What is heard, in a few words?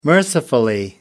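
"mercifully", British English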